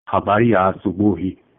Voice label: good morning swahili

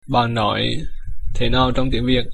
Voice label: how to say, Vietnamese

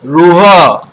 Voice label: stop odia

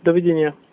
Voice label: goodbye, slovak

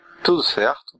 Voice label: how are you, portuguese br

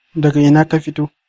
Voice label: where from, hausa

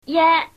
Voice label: shanghainese, numbers

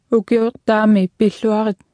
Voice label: Greenlandic, Ukiortaami Pilluarit (sg); Happy New year

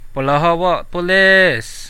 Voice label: police, central dusun

